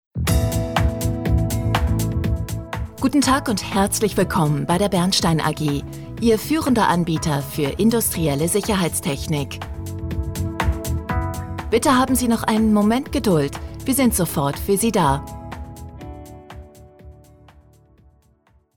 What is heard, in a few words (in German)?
Ansage vor Melden (Begrüßungsansage)